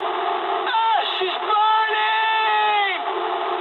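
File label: English on fire mood low; speech; War Thunder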